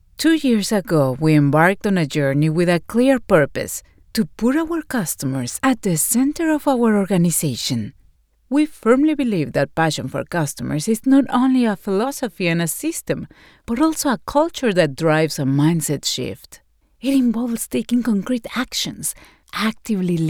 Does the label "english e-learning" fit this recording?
Yes